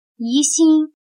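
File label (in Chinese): "疑心", Chinese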